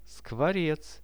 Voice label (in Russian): skvorec, Russian; скворец; birds; Какая это птица?